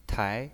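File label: "tai", Mandarin Chinese pinyin, second tone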